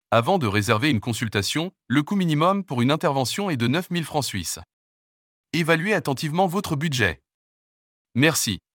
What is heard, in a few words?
Vocal pop up french